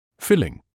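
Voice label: "filling", British English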